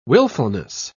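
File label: "willfulness", British English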